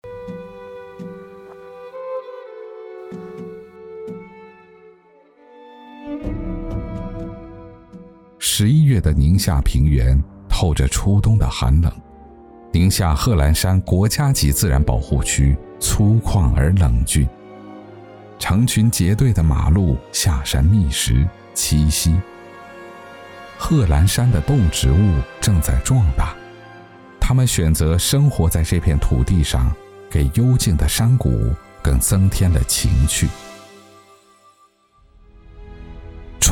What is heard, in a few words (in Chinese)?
专题片; 宁夏宣贯二十大